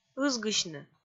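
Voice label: "ызгысьны", Komi, female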